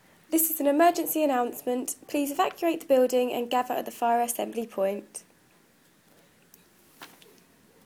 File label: Emergency message female voice